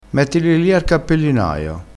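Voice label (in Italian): "cappellinaio", dialettale crespinese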